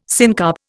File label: "syncarp", Indian